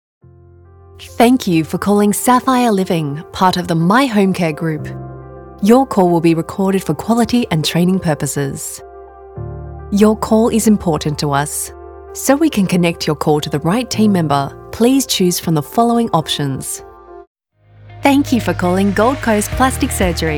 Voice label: IVR